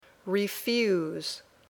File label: "refuse", English